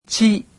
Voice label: japanese alphabet "chi"